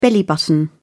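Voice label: "belly button", British English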